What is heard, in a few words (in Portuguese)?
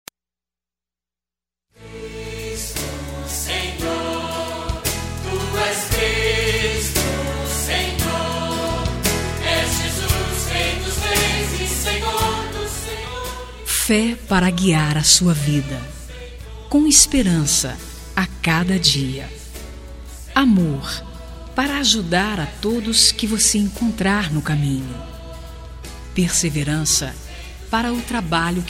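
Evangélica Mensagem pra Amizade, female, telemensagens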